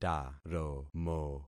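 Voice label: "taromo", concatenated syllables; Affixing experiment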